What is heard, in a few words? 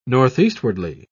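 "northeastwardly", British English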